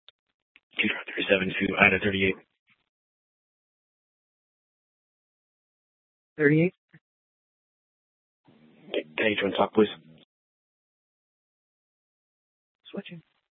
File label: Mesa PD Central Dispatch, scanner